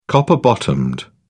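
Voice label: "copper bottomed", British English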